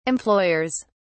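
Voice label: "employers", British English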